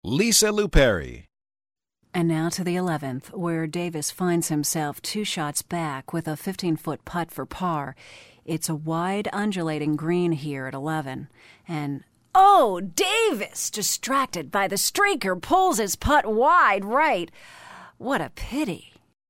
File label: golf announcer